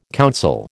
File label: "counsel", American